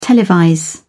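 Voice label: "televise", British English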